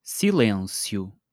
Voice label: "silêncio!", portuguese